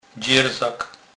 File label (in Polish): "dzerzak", kurpiow